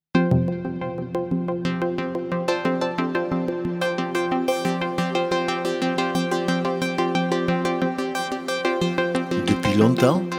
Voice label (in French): Petite voix